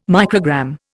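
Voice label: "microgram", English, Indian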